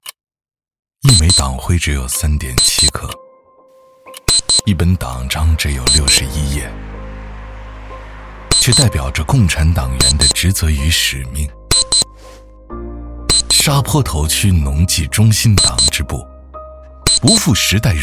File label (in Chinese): 男 党建稿件走心